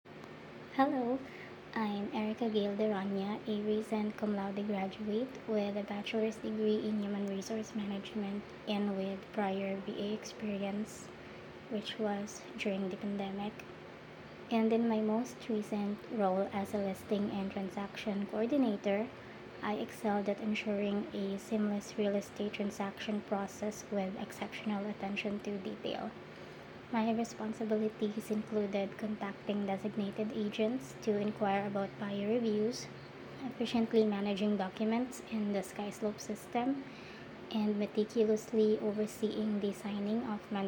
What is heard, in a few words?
Voice Recording, Self Introduction; Real Estate Listing Coordinator/Transaction Coordinator Assistant